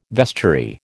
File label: "vestiary", American